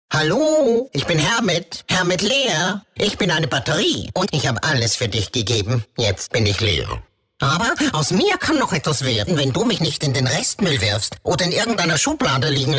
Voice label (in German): Hoerfunkspot; HermitLeer